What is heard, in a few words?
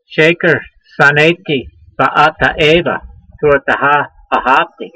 voice, hebrew language; Psalm 119:163; sheyker sanaytee va'ata'ay'va, torateha ahavtee